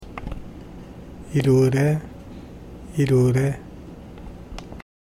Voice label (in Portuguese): cinco fala masculina, karaja